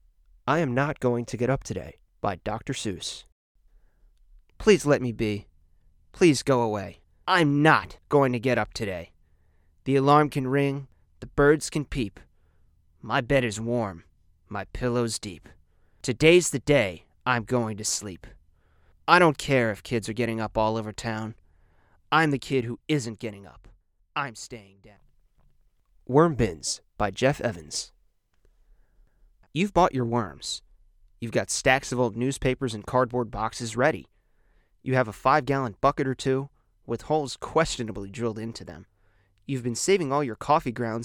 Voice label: Audiobook

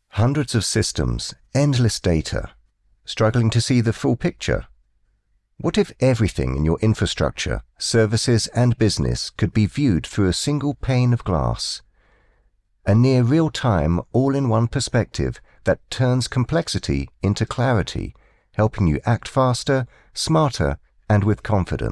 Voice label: british voice over product explainer

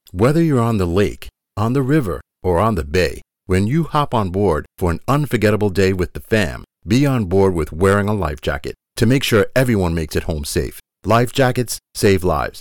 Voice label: Radio; CA Dept Of Boating and Waterways

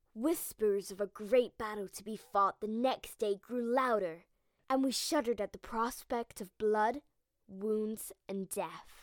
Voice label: Older Voice

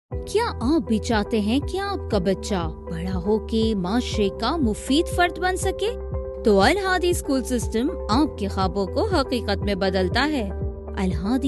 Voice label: Pakistani female Urdu/English voiceover

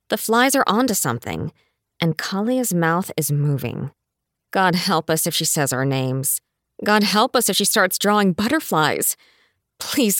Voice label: Audiobook Satire Fic 1st POV F

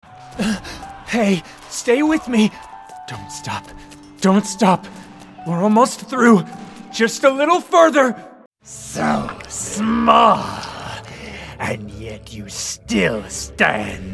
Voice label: Animation Demo Reel

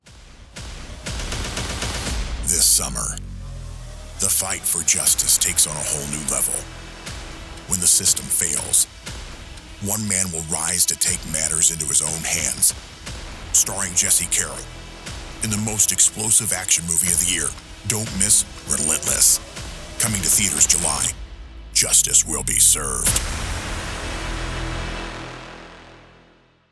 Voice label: Trailer; Relentless

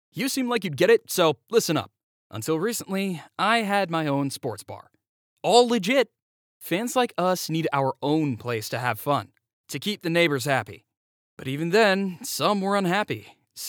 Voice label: Character Bar Owner

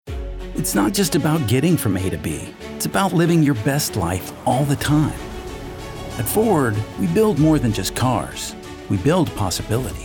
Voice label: Commercial

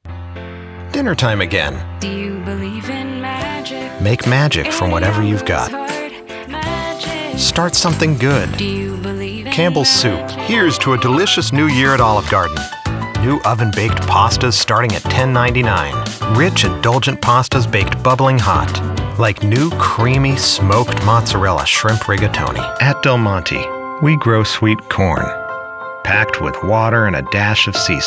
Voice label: Commercial

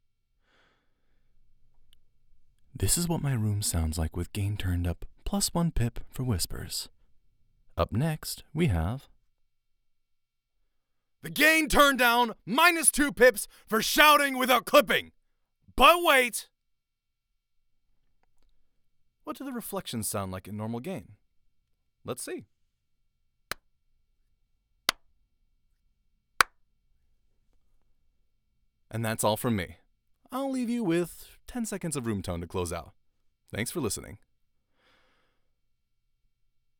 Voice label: Raw Studio Sample

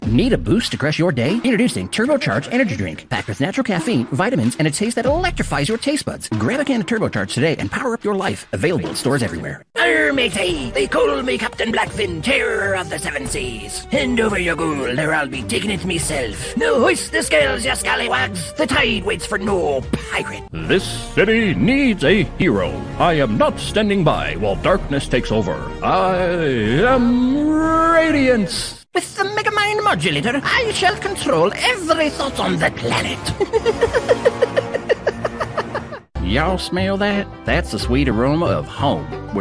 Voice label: Multi Voice, Voice Actor; Multi Voice Demo; Corporate Training

